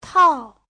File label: "tao4", Chinese; Giáo trình Hán ngữ